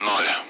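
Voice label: Speech Sound Russian Common Allied Flight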